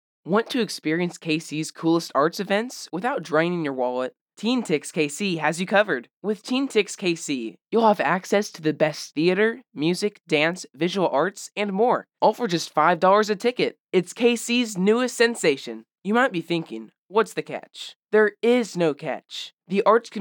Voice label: commercial sample